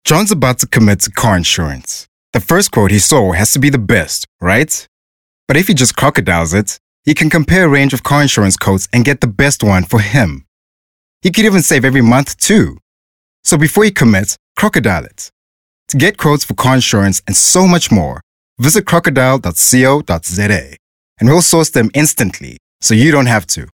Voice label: English Conversational

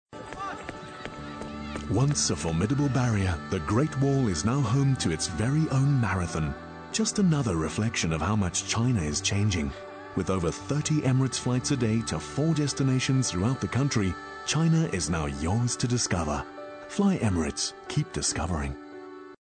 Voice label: English Corporate Showcase

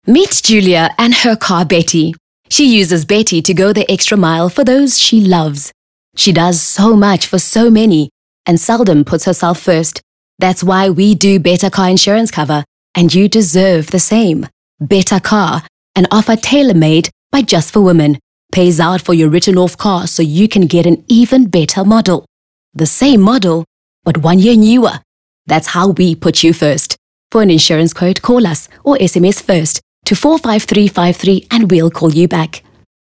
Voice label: English, conversational

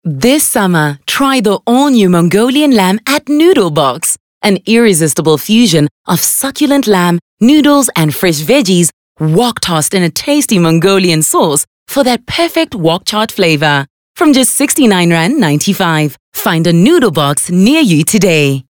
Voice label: English bright

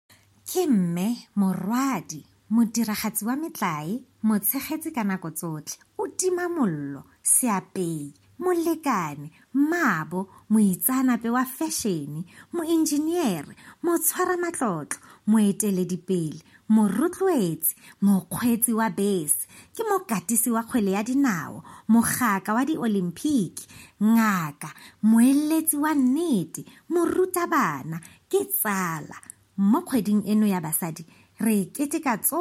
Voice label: Tswana Soft Sell

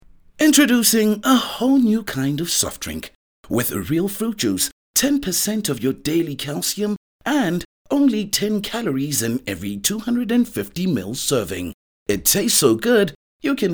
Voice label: English Bright; OSM Talent

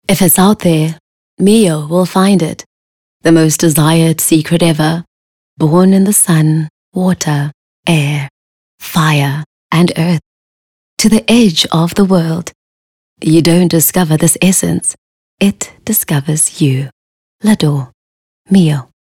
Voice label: English Intimate

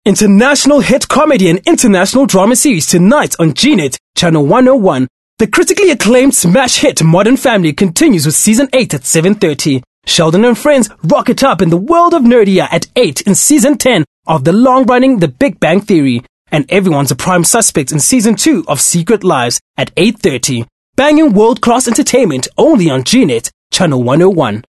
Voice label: English; Skill TV Promo

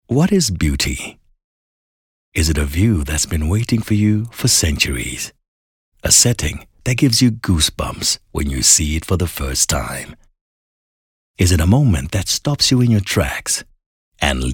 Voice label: English Dramatic

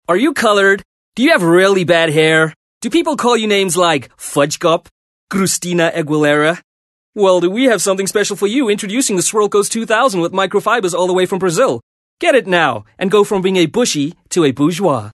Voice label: English Character Glomail Voice

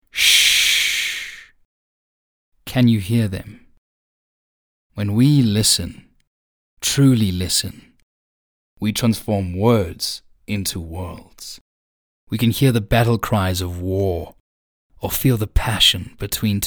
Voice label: English Intimate; OSM Talent

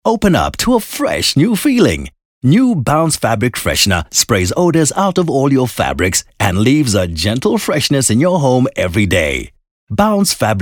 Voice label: English Bright

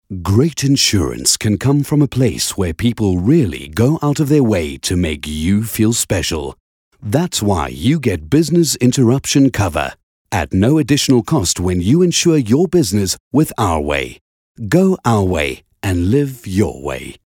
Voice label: English Corporate

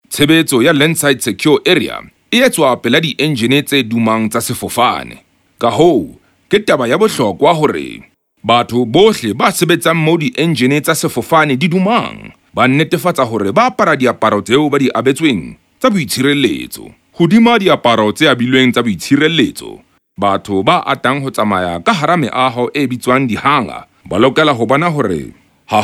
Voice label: Sotho Corporate

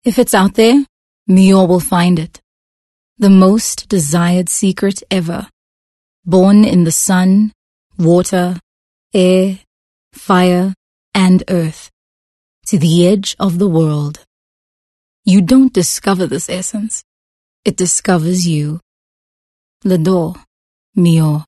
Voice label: English Softsell

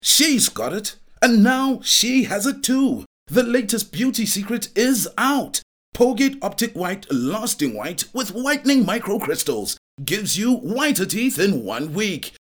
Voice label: English Hard Sell; OSM Talent

